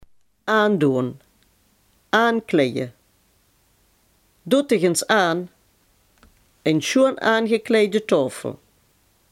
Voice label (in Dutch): "aankleden", Limburg